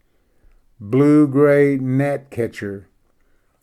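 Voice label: "blue gray gnatcatcher", English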